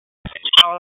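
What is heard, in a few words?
Dispatch, P25; WSO DISP 1; wake p25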